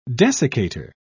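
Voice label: "desiccator", British English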